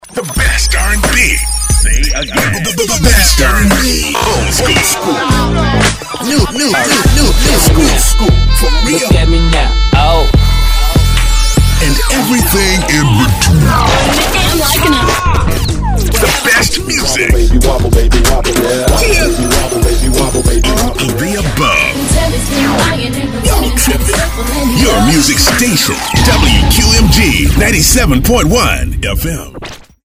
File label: english american radio imaging